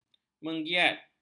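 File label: "menggiat", Malay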